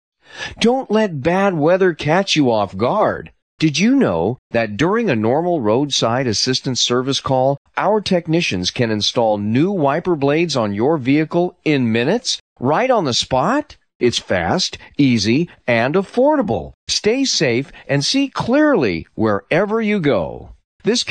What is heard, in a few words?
Male